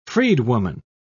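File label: "freedwoman", British English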